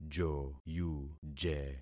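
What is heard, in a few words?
"joyuje", concatenated syllables; Affixing experiment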